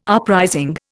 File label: "uprising", Indian